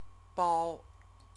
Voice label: "bao", Chinese; CTCFL; University of Oxford